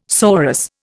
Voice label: "sorus", Indian